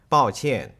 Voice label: "bao qian", Chinese

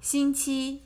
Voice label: "Xingqi", Chinese

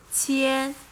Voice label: "Qian", Chinese

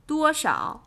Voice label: "Duoshao", Chinese

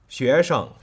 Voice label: "Xuesheng", Chinese

